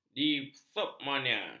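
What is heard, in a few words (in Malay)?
"dipsomania", Malay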